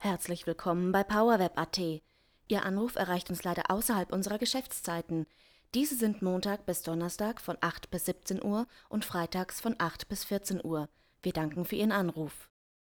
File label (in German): Telefonschleife